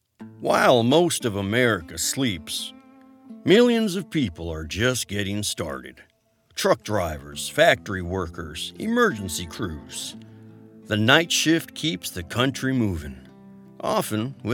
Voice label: documentary narration; Night Shift